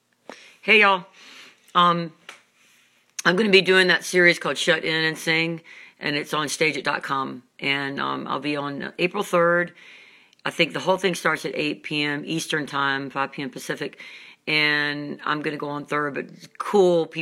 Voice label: promo